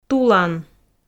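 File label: "тулан", Komi, female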